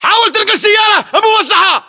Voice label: landmark near armored vehicle, battle chatter, english